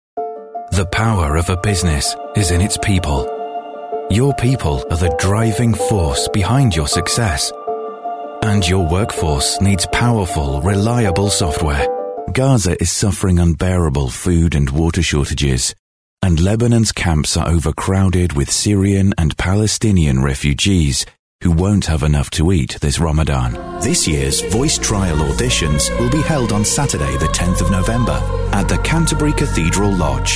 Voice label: warm cool conversational